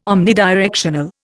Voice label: "omnidirectional", Indian

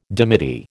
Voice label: "dimity", American

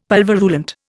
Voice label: "pulverulent", Indian; Dictionary

